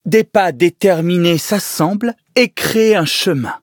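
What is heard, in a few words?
loading, French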